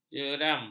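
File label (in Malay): "jeram", Malay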